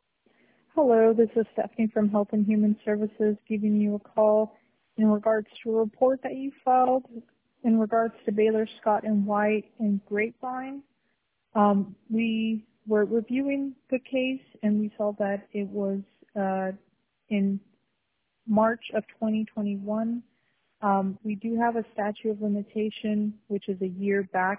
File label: voicemail